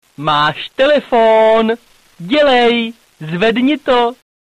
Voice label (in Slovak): zvonenie hlásky cz